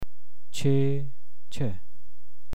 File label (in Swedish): "che", persiska